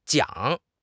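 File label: "jiang3", Chinese; HSK; HSK3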